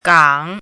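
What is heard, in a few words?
"gǎng", Mandarin Chinese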